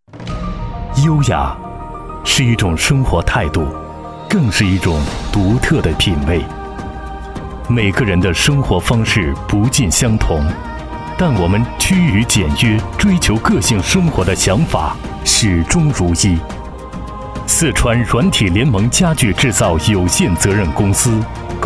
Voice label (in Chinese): 男 宣传片 高端品质宣传片; 四川软体联盟